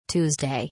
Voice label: "Tuesday" in english